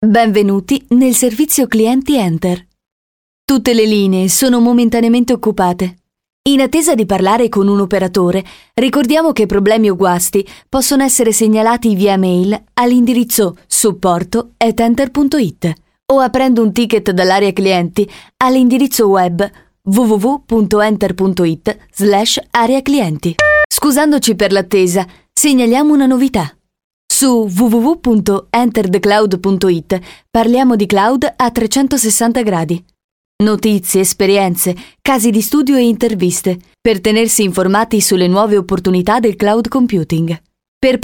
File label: italian voice over female ivr